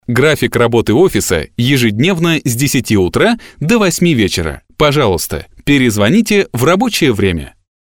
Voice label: IVR